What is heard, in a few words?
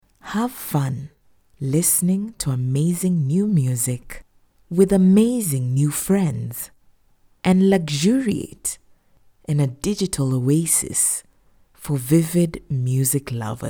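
English African Female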